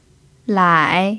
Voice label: "lai3", chinese pinyin